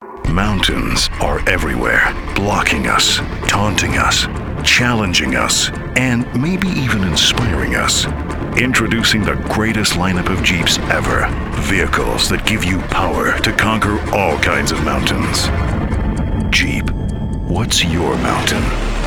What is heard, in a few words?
Regional American General